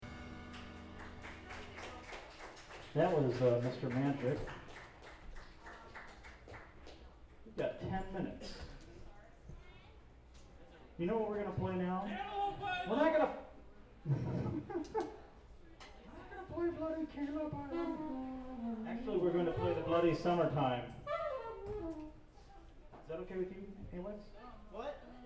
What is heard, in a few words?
banter; One World - December 2 2000